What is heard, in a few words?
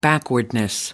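"backwardness", British English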